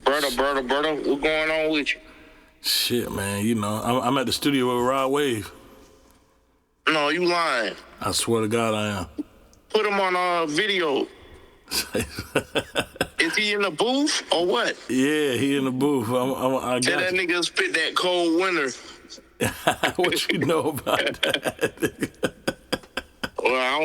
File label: Phone Skit; Druski